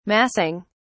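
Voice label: "massing", British English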